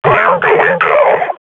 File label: firing, combine grunt; Half Life Model Pack